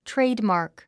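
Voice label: "trademark", English word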